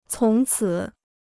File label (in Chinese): "从此", Chinese